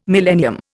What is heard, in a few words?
"millennium", Indian